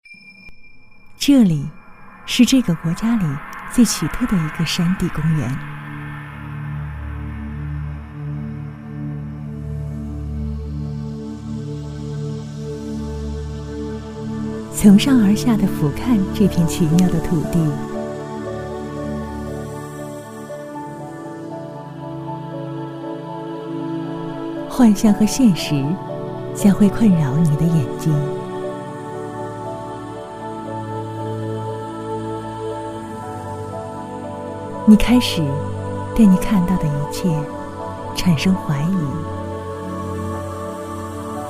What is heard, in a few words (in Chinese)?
女, 旅游宣传, 贵州省旅游宣传片; 温和抒情; 女225-知性 温婉